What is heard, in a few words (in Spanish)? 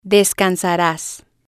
"descansaras", spanish